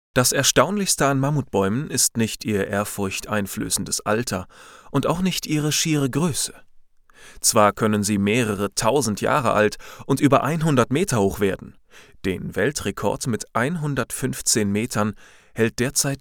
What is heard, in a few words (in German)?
hoerbuch sachbuch